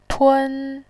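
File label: "tun", Mandarin Chinese, first tone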